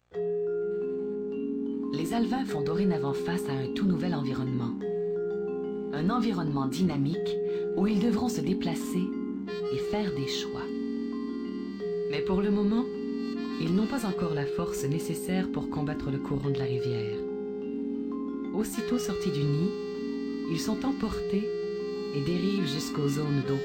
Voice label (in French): Extrait narration documentaire